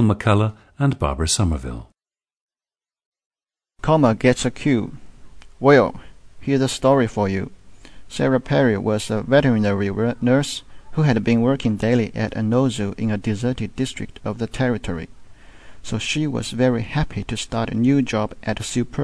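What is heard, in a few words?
jiangsu, dialects of English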